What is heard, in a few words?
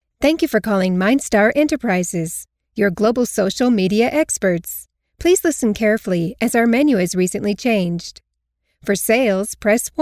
female